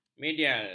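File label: "medial", Malay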